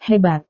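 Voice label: "hebat", Indonesian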